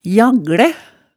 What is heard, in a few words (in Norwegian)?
"jagglæ", hemsedalsmaalet